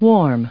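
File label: "warm", English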